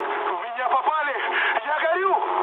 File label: on fire, mood med, speech ru; War Thunder